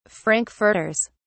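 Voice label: "frankfurters", English, British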